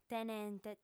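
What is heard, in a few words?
"tenente", Sardinian, female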